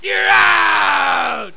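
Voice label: "out", English; Baseball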